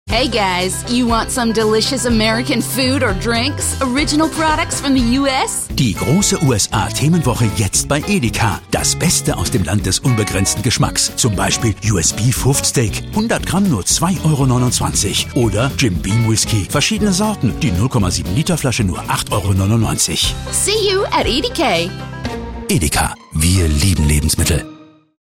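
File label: US English Dynamisch; Fremdtake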